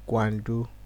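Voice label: "gwandu", Nigerian Pidgin, male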